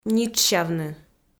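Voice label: "нитшсявны", Komi-Zyrian, female; kpv audio dict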